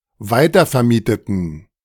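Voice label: "weitervermieteten", German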